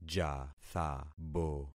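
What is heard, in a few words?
"jathabo", concatenated syllables; Affixing experiment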